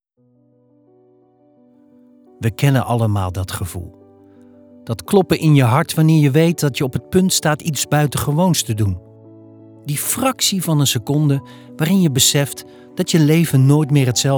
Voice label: Storytelling, voice over